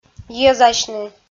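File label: "йӧзасьны", Komi, female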